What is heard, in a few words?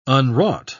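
"unwrought", English, British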